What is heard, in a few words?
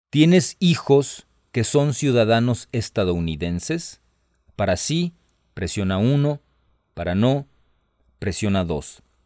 Spanish, hotline